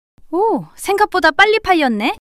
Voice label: surprise, monologue